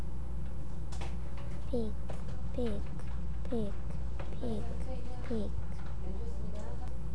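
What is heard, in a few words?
"pick", spoken word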